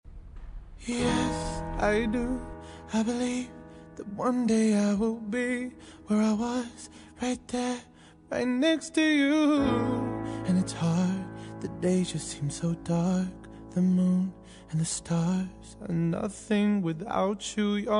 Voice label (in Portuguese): aniv distante neutro masc, Aniversário Distante, Pessoa Especial, Masculina